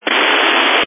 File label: Family Radio Service (FRS)